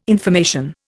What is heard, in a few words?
"information", Indian